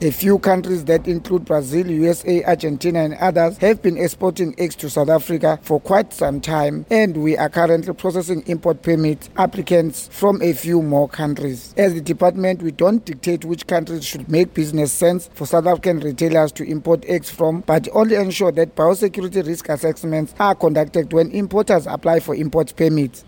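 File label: English; Egg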